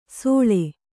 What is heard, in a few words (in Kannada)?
"ಸೋಳೆ", Kannada